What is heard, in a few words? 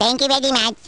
baby "thank you very much"; baby luigi